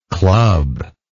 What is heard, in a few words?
"clubbing", English